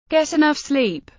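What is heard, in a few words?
"Get enough sleep", English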